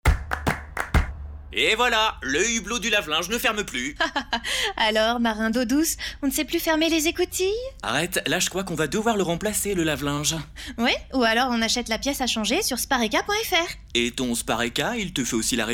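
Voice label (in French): comedie, Comédien voix off, publicité; Spareka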